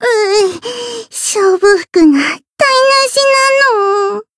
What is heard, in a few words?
Voice win barely jp